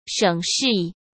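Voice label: "省事", Mandarin Chinese; hsk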